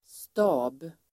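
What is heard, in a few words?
"stab", Swedish; Uttal: [sta:b]; stab substantiv, staff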